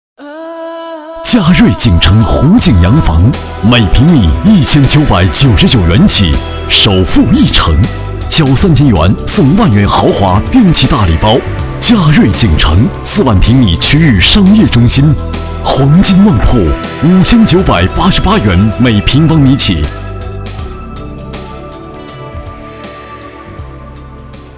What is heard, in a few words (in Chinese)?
男 广告; 嘉瑞锦城